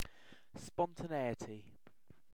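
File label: "spontaneity", British English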